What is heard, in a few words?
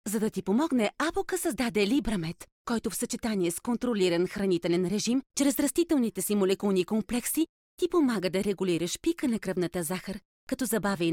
bulgarian f commercial, voice; voice demos